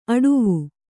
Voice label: "ಅಡುವು", Kannada

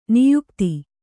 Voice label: "ನಾಯಕಿತಿ", Kannada

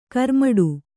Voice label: "ಗಿರಿಮಿಟ್ಟು", Kannada

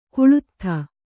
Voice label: "ಕಳಾತೀತ", Kannada

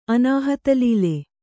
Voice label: "ಅನಾಹತಲೀಲೆ", Kannada

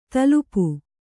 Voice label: "ತಲುಪು", kannada

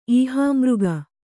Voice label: "ಈಹಾಮೃಗ", Kannada

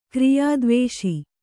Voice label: "ಕ್ರಿಯಾದ್ವೇಷ", Kannada

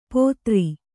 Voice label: "ಪೋತ್ರಿ", kannada

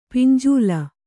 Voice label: "ಪಿಂಜಲ", Kannada

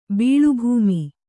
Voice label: "ಬೀಳುಭೂಮಿ", kannada

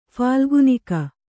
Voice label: "ಫಾಲ್ಗುನಿಕ", kannada

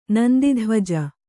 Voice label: "ನಂದಿಧ್ವಜ", Kannada

